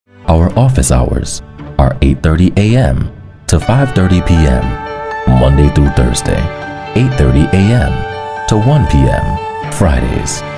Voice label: US PHONE